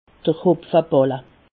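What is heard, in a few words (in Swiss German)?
"hopfeballe", Alsatian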